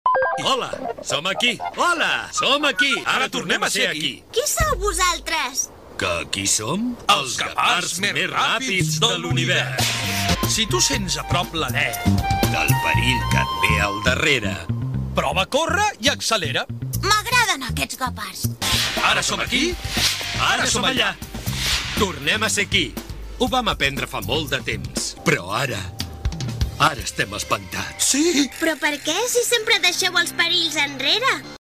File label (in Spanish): interpretación catalán